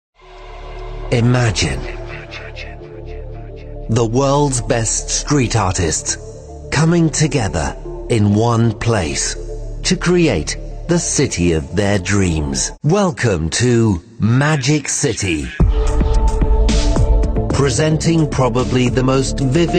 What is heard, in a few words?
voiceover native speaker uk british; englischer sprecher; Magic City